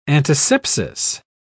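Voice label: "antisepsis", British English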